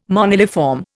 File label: "moniliform", Indian